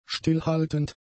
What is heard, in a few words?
"stillhaltend", German; adjectives